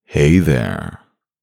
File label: man hey there, manly; voice-ringtones